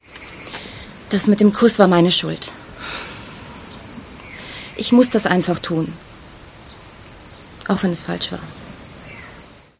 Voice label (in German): Fremdtake Synchron